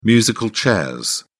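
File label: "musical chairs", British English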